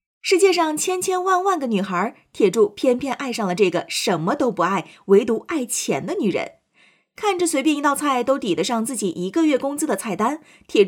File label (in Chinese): 电影解说音, 普通话, 女